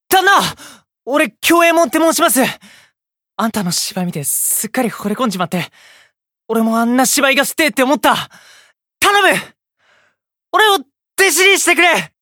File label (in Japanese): 10代明るめ